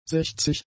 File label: "sechzig", German; adjectives